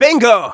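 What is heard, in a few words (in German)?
yes, German; "Bingo!"; Scout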